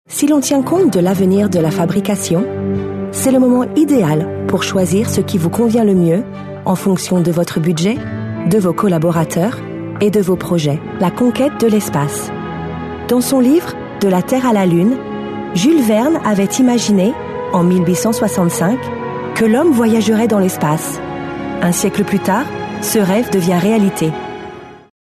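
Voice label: French, female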